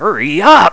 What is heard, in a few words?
hurry up, human sounds